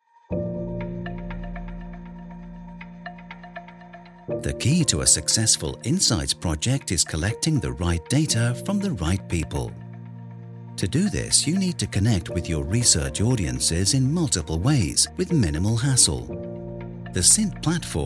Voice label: British Voiceover Explainer Video; CINT Nested Quotas

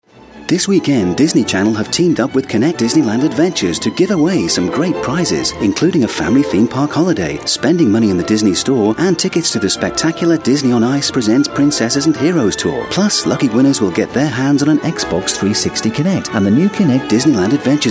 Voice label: British Voiceover; DISNEY Reel

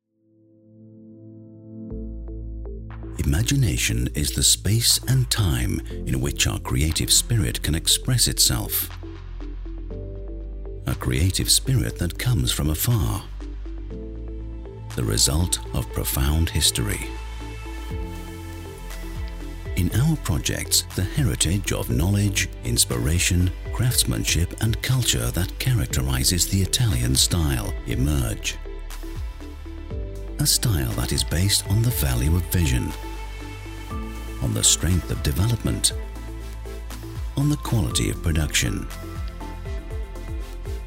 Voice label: British Voiceover Corporate Branding Film; BETACODE

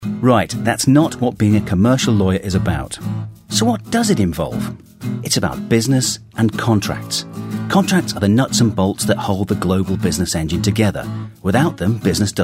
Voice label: British Voiceover Explainer Animation Video; ALLEN AND OVERY

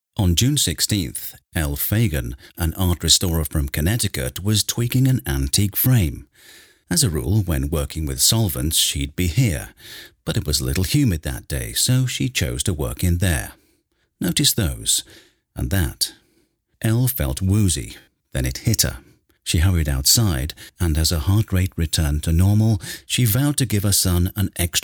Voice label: British Voiceover Documentary; STRANGE COINCIDENCES

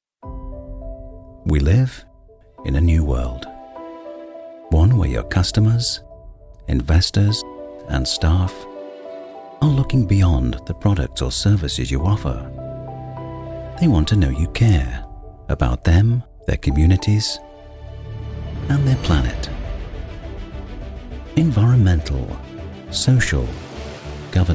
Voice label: British Voiceover, Corporate Video; IRWIN MITCHELL ESG

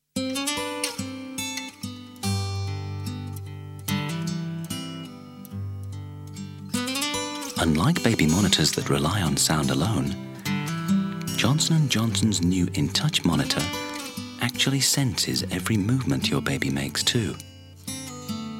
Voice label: British Voiceover, TV Commercial; JOHNSONS BABY MONITOR

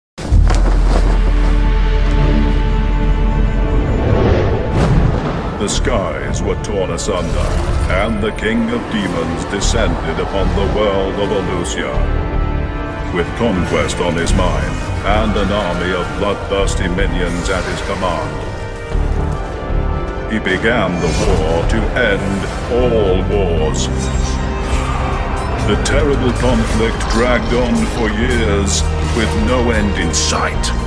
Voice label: British Voiceover Game Intro; NEXON CHAMPIONS RISING LEGENDS OF ELUSIA

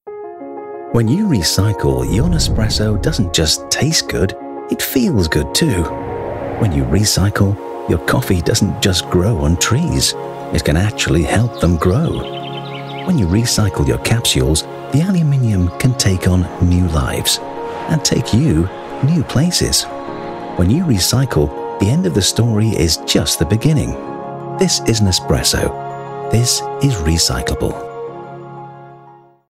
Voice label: British Voiceover, TV commercial; NESPRESSO

